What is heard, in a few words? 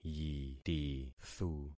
"yitithu", concat syllables; Affixing experiment